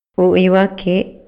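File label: Maya, male; INALI; MayaYuc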